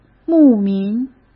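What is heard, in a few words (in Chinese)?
"牧民", Mandarin Chinese; chinese-flashcards; hsk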